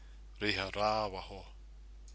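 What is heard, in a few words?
"riha rawaho", Maori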